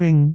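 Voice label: "bing3", Cantonese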